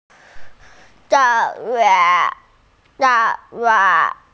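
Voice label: "shi2 yue4", Mandarin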